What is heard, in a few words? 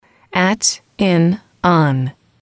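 "at in on", female, English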